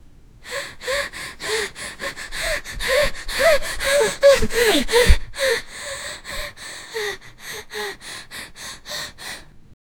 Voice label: realistic sound of a 15 y, teenage girl

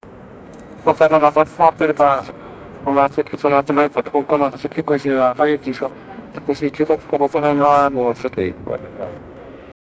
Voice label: airplane captian speaking; captain

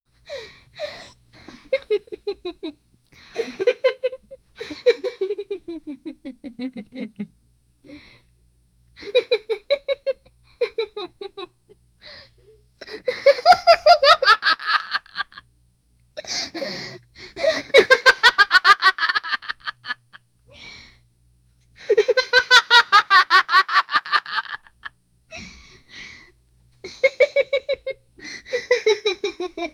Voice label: 16 year old african, male

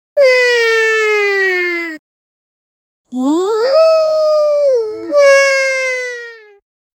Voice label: cute cartoon comical char; characters

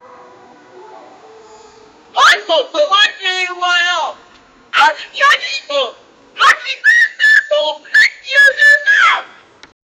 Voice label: a woman yelling oh my, screaming; sound effect

